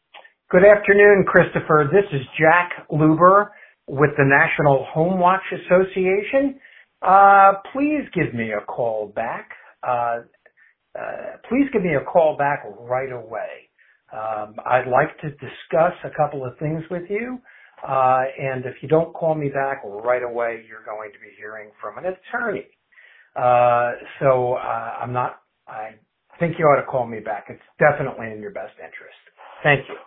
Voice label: Voicemail